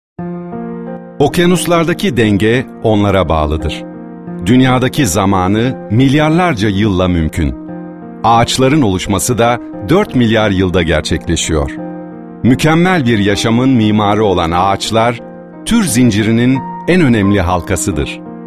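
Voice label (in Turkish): belgesel, Profesyonel Seslendirme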